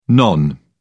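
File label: "non", British English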